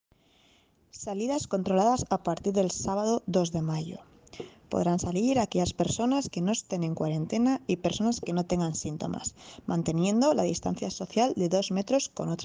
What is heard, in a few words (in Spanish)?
castellano; Tejiendo Redes